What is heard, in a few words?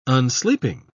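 "unsleeping", British English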